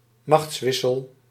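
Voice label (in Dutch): "machtswissel", Dutch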